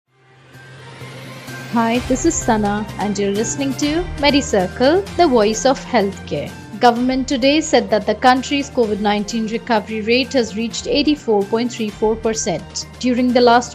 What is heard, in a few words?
english news